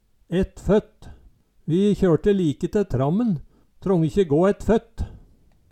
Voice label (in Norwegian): ett føtt, Numedalsmål